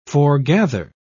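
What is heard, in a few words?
"foregather", en, uk British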